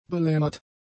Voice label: "belemmert", German; adjectives